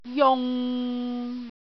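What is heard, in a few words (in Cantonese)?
"jung6", Cantonese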